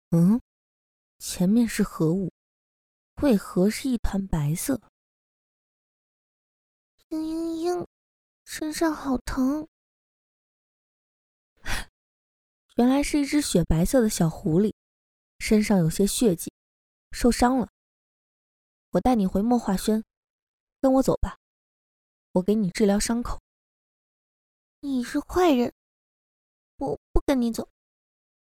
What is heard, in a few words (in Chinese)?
御姐音萝莉音对话配音